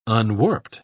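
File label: "unwarped", English, British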